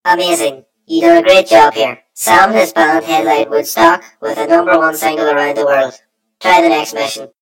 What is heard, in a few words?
story voiceover; yumpod